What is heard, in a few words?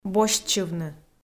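"босьтчывны", Komi, female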